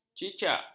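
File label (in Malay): "cicak", Malay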